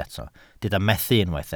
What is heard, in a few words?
"methu", Welsh